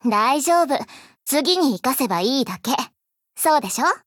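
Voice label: Fail, Japanese; Leva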